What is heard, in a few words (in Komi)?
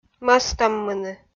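"мӧстӧммыны", Komi, female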